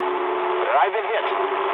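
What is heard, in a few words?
aircraft damaged mood low, speech English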